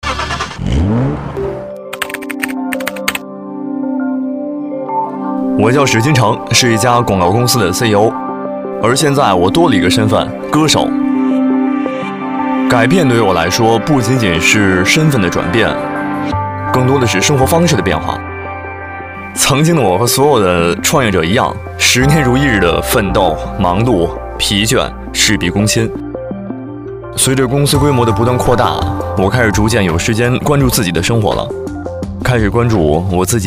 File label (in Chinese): 男 广播 普通人; 奔驰